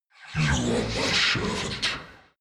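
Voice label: demon giving orders, creatures; kreaturen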